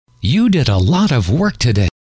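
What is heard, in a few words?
you Did A Lot Of Work Today, male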